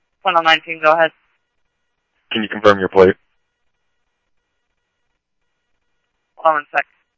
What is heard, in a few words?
police, scanner audio